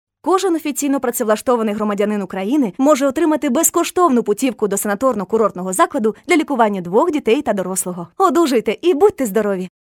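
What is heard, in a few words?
ukrainian voiceover locutora ucraniana, Ukrainian female voice over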